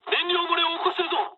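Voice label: Japanese tank damaged mood low; War Thunder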